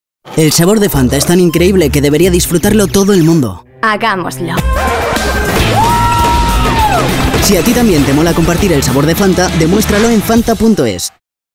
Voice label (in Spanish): Publicidad; Fanta